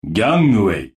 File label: desperation power; paradox bondrewd voice pack; chrono